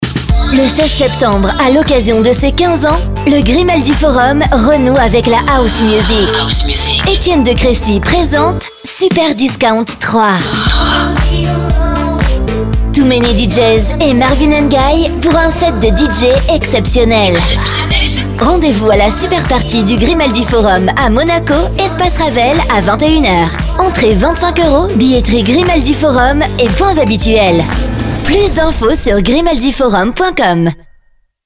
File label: french promos; French native voice over talent